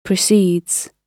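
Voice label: "precedes", British English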